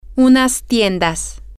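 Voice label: some stores, Spanish, female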